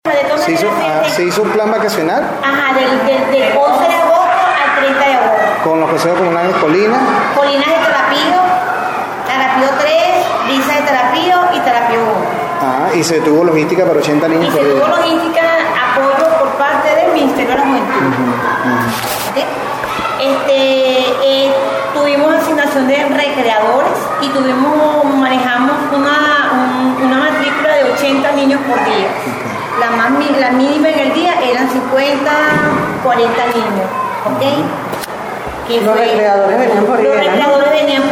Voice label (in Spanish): habla castellano; plan vacacional agosto